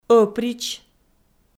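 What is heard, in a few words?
"ӧприч", Komi, female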